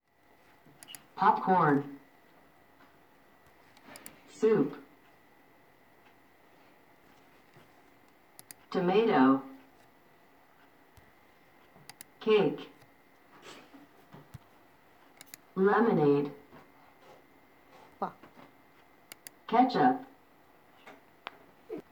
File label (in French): anglais